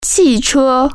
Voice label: "car", Chinese